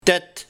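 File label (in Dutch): "Tet", dialect; Borst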